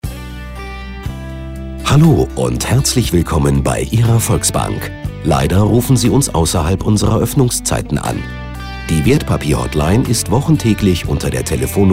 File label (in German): Ansage